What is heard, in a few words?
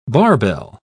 "barbell", pronounce